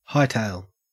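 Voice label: "hightail", Australian English; pronunciations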